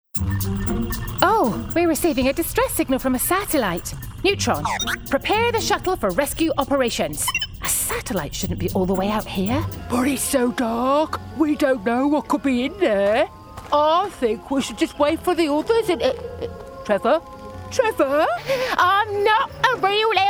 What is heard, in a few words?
character